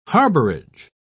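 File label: "harborage", British English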